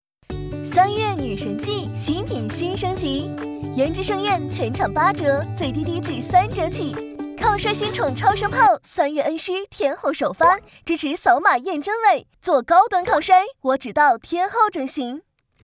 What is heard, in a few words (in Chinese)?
促销广告; 天后整形